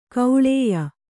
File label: "ಕಾಳೇಯ", Kannada